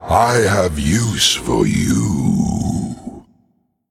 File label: Male Undead Bartender Greetings